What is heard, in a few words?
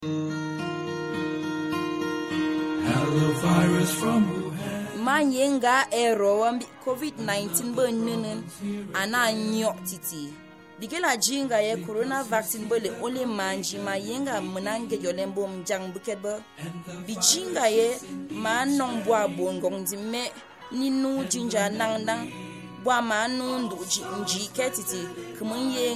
PSA BALI NYONGA, covid 19 spot in mungaka; CCMN